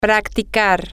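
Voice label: to practice, Spanish, female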